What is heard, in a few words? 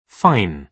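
"fine", British English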